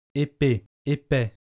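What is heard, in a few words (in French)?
voyelles moyennes, French Paris, épée épais; I. Le système vocalique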